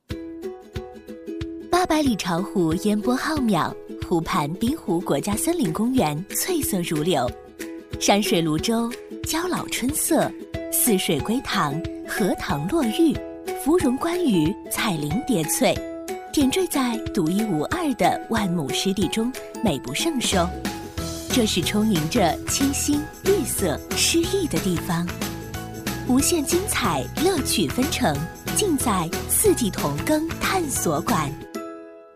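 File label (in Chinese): 女 专题配音【欢快科技】; 巢湖探索馆; 轻松活泼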